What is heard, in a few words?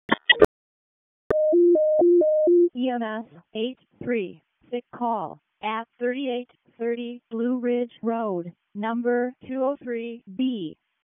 EMS ALERT, p25